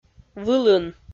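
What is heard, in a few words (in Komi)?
"вылын", Komi, female; audio dict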